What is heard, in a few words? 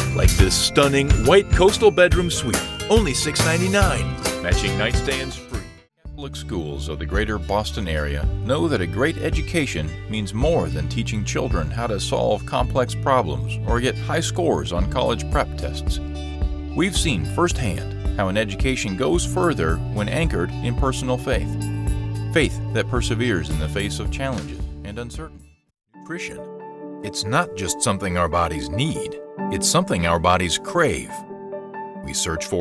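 VO with music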